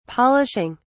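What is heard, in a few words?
"polishing", British English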